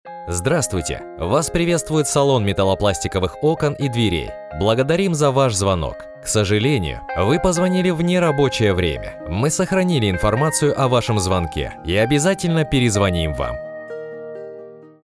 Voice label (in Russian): Avtootvetchik IVR Golosovoe privetstvie